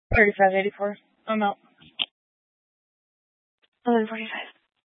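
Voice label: Police 1 Dispatch, Scanner audio recording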